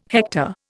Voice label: "hector", Indian